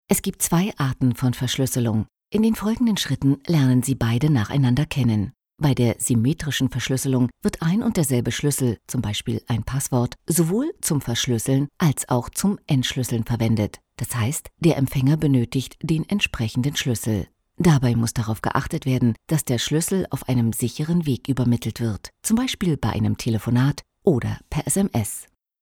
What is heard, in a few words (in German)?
Erklaerfilm; PGP